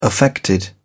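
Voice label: "affected", English, uk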